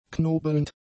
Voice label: "knobelnd", German; adjectives